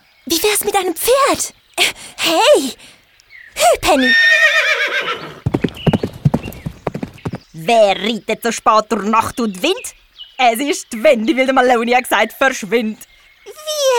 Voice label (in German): Hoerspiel CH Deutsch